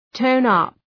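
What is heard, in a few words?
"tone up", English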